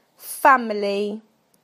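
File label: "family", British English; PictureDictionary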